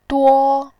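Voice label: "duo", Mandarin Chinese, first tone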